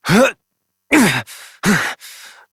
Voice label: Horse Mount Adult, Dialog; Duviri